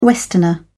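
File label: "westerner", British English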